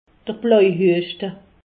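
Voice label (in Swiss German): "blojhueschte", Alsatian; medecine; coqueluche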